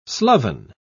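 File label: "sloven", British English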